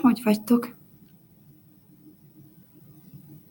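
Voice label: hogy vagytok, Hungarian pronunciation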